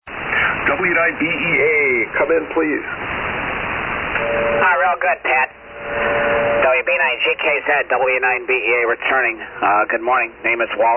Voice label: Collins KWS-1 & 75A-4; Northbrook,IL.